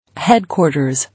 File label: "Headquarters", English